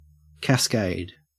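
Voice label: "cascade", Australian English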